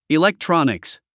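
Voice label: "electronics", English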